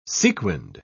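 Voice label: "sequinned", British English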